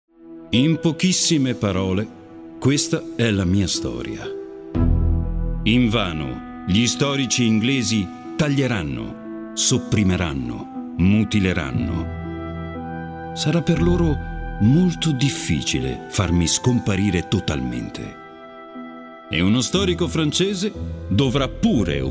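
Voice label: Italian, male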